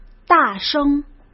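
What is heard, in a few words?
"大声" Mandarin Chinese; hsk; chinese-flashcards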